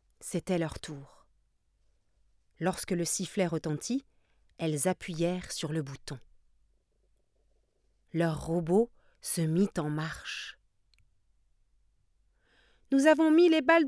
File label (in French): robots fr; marmite